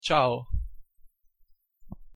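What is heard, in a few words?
"ciao", pronunciation, Italian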